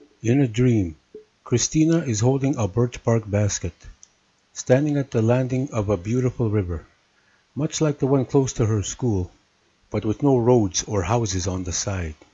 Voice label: new mic with foam at 75 percent audio levels